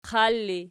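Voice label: "qal" ergative, Archi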